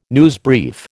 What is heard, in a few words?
"newsbrief", American